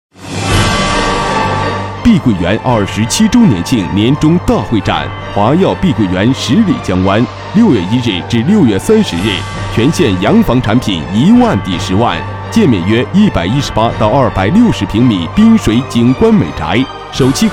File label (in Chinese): 促销电台房地产